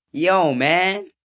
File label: Yo Man, Greeting, 1 boy, 12 yrs.; Talking; VoiceBox